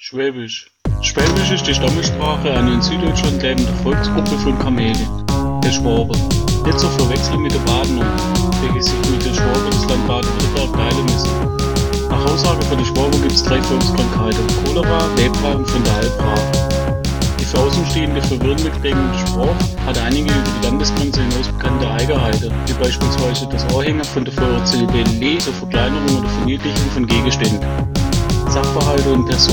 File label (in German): Schwaebisch